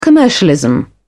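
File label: "commercialism", English, British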